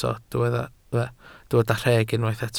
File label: "rheg", Welsh